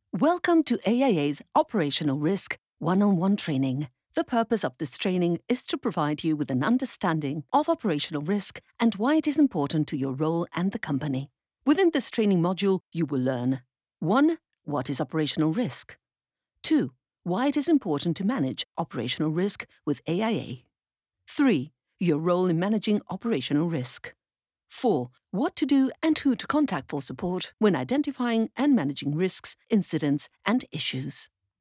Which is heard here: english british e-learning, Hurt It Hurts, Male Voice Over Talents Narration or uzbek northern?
english british e-learning